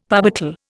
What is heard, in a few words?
"parbuckle", Indian; Dictionary